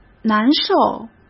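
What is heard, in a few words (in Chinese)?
"难受", Mandarin Chinese; hsk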